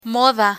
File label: "moda", Spanish; learn spanish